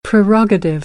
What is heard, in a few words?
"prerogative", American English